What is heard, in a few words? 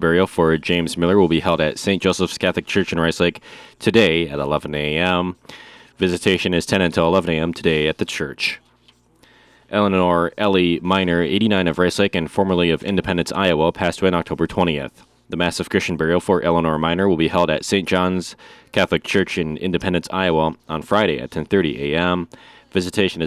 Funeral Announcements